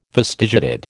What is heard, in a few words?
"fastigiated", American